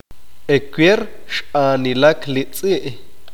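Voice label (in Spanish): ayer el perro corrió, Spanish, male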